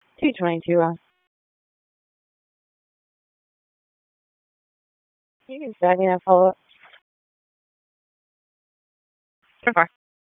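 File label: Police Northeast District Disp, radio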